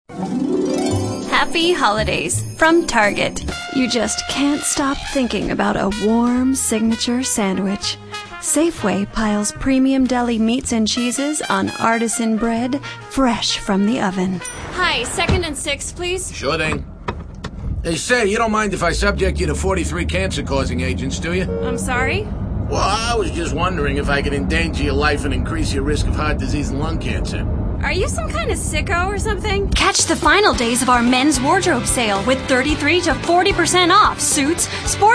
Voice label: female, late20s late30s clear

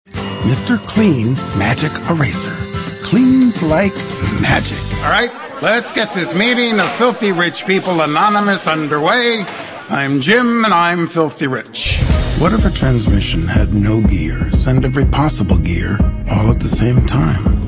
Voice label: male, sophisticated

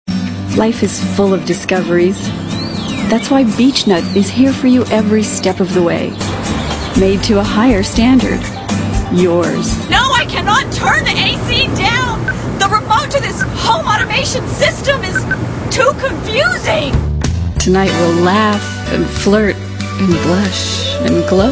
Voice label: female, late20s late30s clear

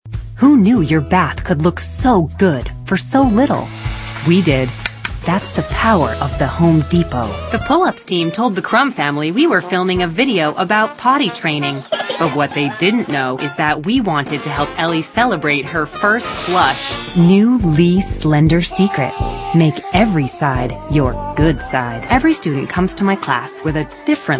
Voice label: female, late30s early40s clean

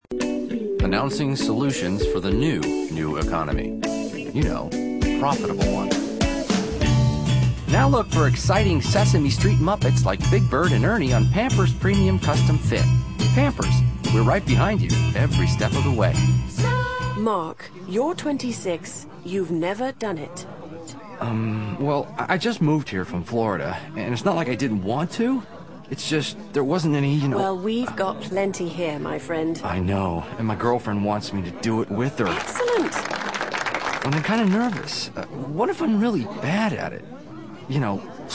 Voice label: male natural character

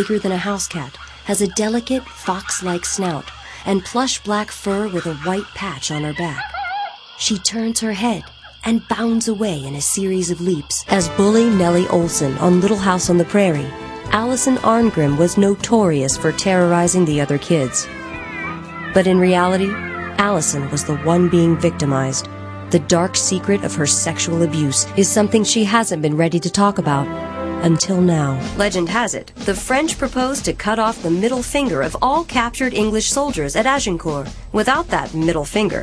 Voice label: female, narration

